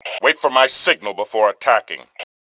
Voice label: Attack Attack Wait, Male; T2 Male 02